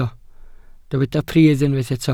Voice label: "pridd" Welsh

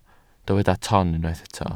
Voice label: "ton", Welsh

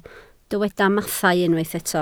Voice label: "mathau", Welsh

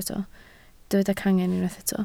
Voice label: "cangen" Welsh

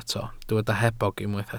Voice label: "hebog", Welsh

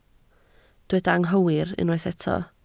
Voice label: "anghywir", Welsh

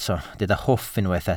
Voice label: "hwff" Welsh

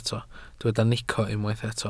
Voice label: "nico", Welsh

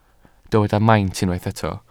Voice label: "maint" Welsh

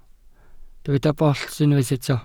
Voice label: "bollt", Welsh

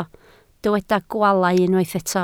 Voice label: "gwaalau", Welsh

